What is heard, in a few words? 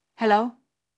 Voice Acting es